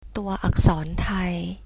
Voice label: thai alphabet, thai